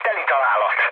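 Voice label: Hungarian bomb success mood low, speech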